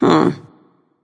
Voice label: confused; smite; izanami